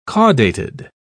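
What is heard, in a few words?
"caudated", British English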